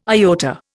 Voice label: "iota", Indian